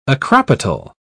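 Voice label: "acropetal", British English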